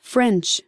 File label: "french"